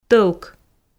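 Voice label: "тӧлк", Komi, female; audio dict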